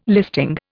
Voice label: "listing", Indian